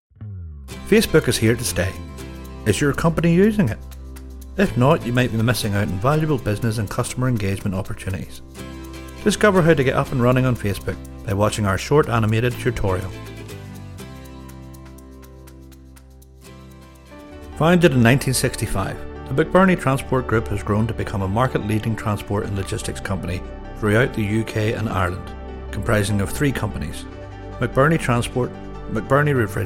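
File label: Corporate Reel, Male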